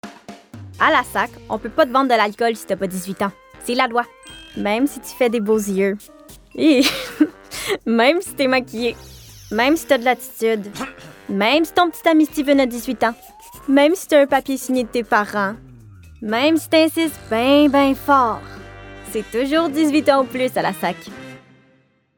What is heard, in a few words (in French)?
demo voix; saq